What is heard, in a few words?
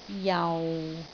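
"jau6", Cantonese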